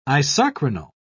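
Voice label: "isochronal", British English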